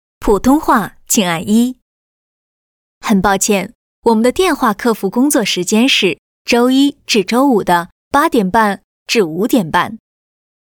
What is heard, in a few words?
Mandarin China IVR